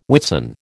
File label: "Whitsun", American